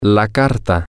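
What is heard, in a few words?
the letter, Spanish, male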